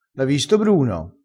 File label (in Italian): "so", crespinese